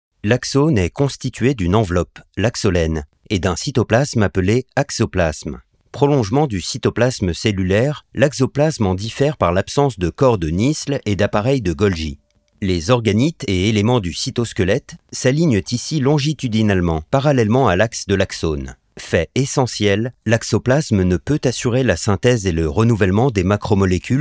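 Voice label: MEDICAL NARRATION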